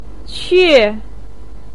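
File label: "que", Mandarin pinyin, tone 4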